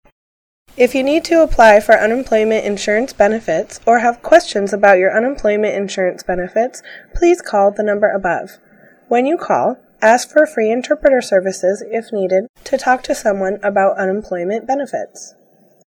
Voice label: english